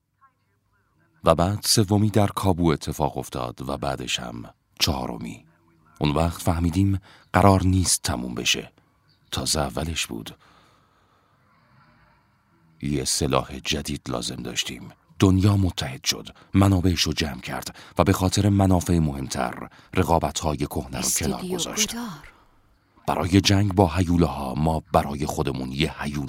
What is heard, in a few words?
dub